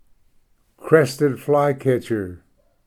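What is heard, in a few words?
"crested flycatcher", English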